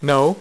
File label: "no", dealer's speech; PowerPOKER Demo